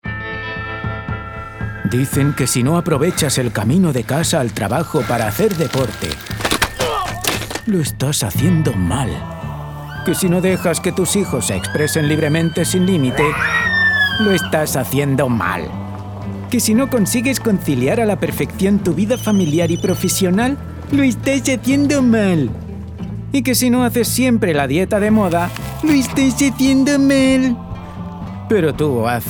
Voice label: COMMERCIAL, SPANISH MALE